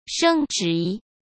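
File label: "升值" Mandarin Chinese; hsk; chinese-flashcards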